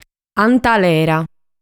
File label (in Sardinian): "Antalera", sardu